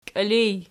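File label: "kiilij" nominative, Archi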